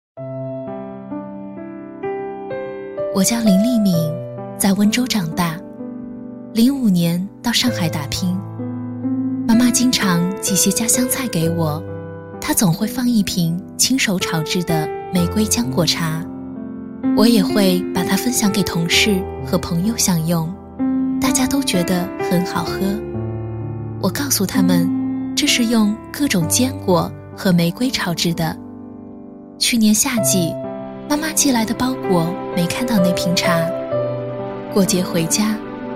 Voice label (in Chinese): 女 旁白 玫瑰姜果茶 (配乐), 国语女声配音